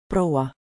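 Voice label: "proua" Estonian; Baltic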